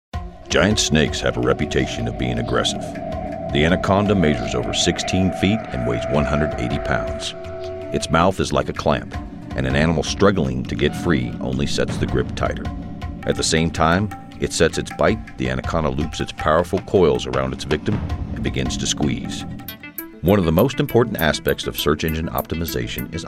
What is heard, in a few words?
narration